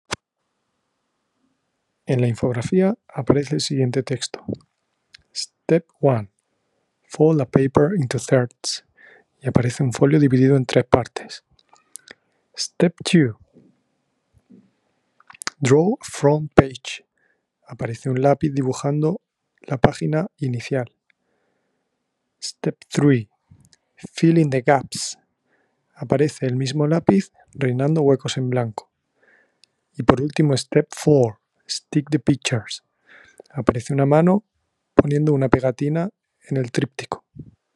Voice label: count to five in fre